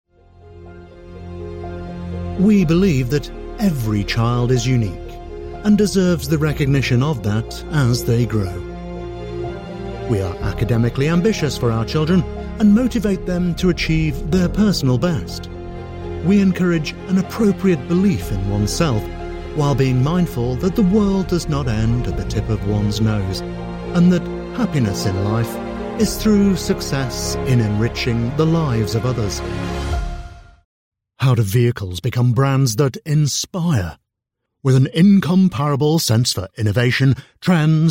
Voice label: corporate; British